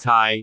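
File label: "caai6", Cantonese; google B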